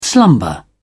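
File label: "slumber", English, British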